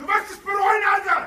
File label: angry; spackenmobs